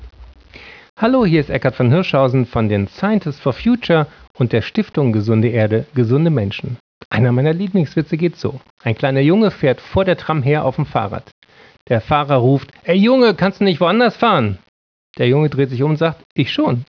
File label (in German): KlimaTram Ansage Witz